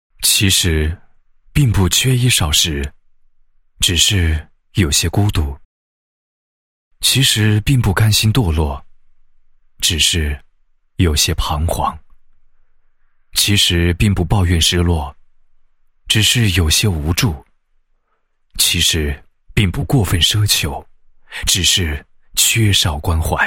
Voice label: 男 颁奖 社工公益项目大赛决赛颁奖仪式; 吉林

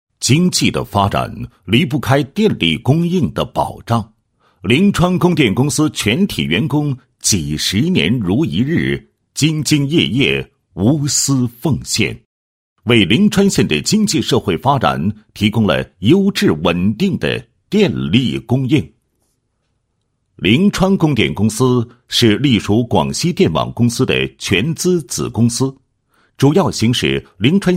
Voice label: 男 企业, 配音; 灵川供电公司管理创新

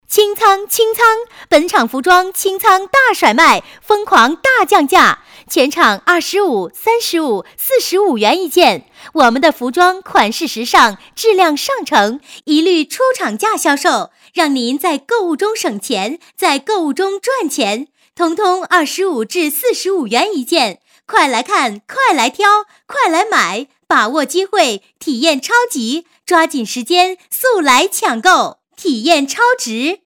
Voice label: 女 促销 服装清仓, 国语女声配音

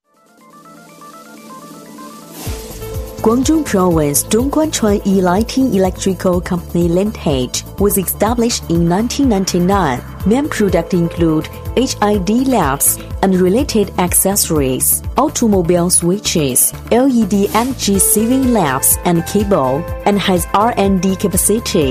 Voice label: 英女, 广告, 英文宣传, 成熟大气; 广东province英文宣传; 成熟知性; 英女2-广告-广东province英文宣传-成熟大气

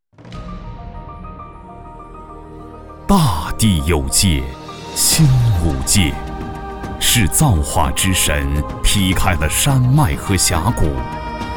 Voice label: 男 旅游宣传; 张家界

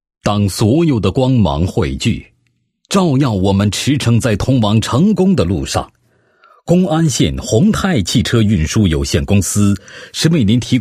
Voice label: 男 公司企业 介绍; 宏泰汽运公司

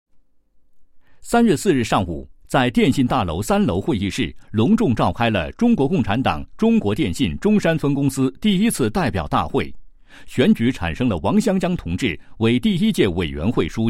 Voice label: 男 新闻 召开党员代表大会

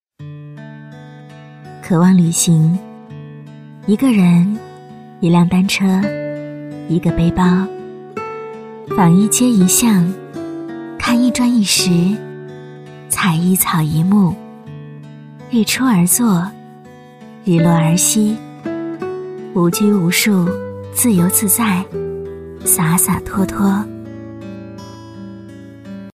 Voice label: 女 旁白; 渴望旅行

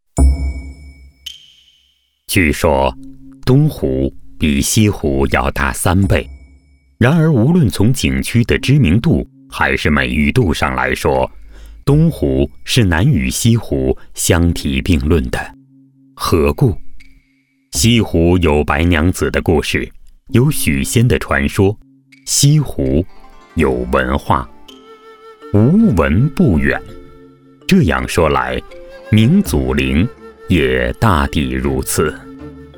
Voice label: 男 历史名胜解说; 明祖陵

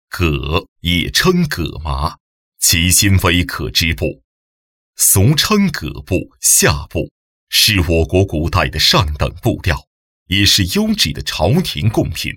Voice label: 解说, 浑厚稳重

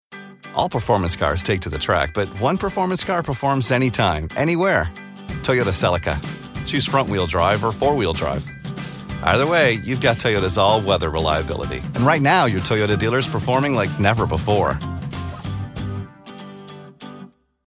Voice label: 外籍男 商业广告 Commercial; Toyota

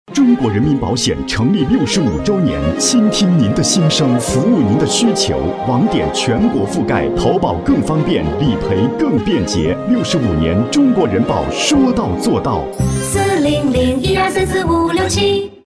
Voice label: 男 电台广告; 央广财经; 中国人保品牌

